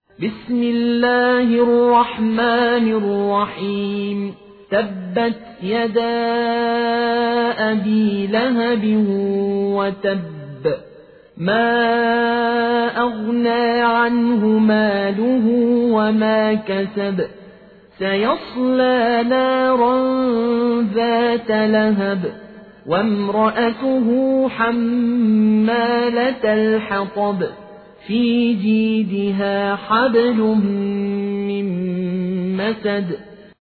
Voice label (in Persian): سوره مسد حفص عن عاصم; الطرابلسی